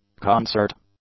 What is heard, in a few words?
"concert", English; nouns